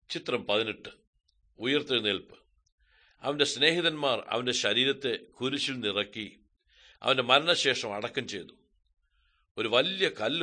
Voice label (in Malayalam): good news malayalam, drama